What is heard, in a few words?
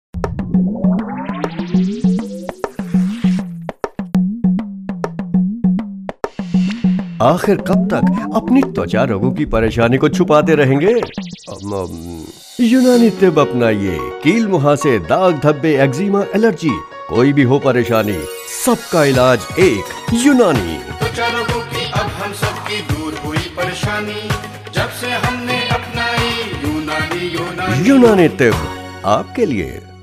Sample, male voice